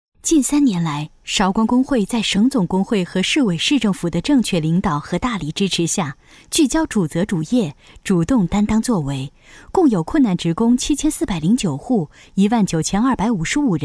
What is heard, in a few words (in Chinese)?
女 专题片 亲切自然; 脱困; 甜美亲切